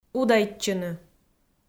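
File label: "удайтчыны", Komi, female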